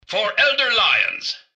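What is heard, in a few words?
greeting, robot; citadel; mrgutsy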